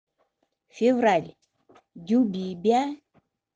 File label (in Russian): февраль, удэгейский